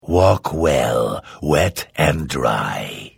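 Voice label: Hello, Vo; The Elder Scrolls III - Morrowind; Morrowind GOTY GOG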